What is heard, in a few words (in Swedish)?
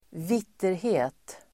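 "vitterhet", Swedish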